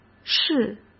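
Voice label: "式", Mandarin Chinese; chinese-flashcards; hsk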